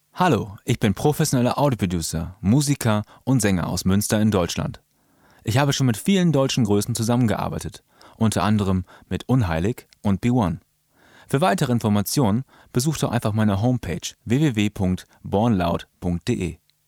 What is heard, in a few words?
German Fvo